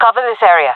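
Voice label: request hold, female; vo; Counter Strike Model Pack; CS:GO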